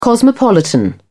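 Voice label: "cosmopolitan", British English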